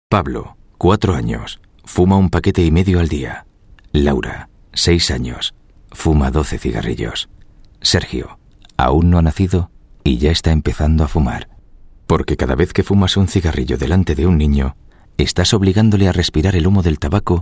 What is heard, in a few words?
radio; sin Humo